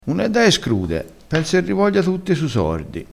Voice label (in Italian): "escrude", vocabolario dialettale